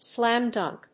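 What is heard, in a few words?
"slam dunk", English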